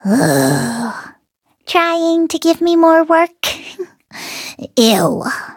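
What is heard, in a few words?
level up, English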